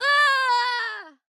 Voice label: Pain Death